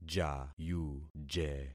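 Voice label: "jayuje", concatenated syllables; Affixing experiment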